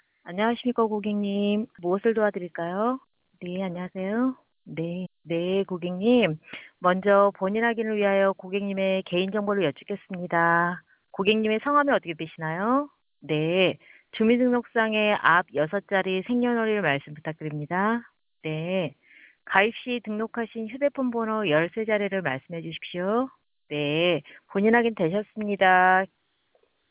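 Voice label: Korean narrowband, speech; ko-KR NarrowbandModel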